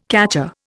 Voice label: "catcher", Indian